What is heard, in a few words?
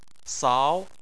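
"sao" tone 2, Chinese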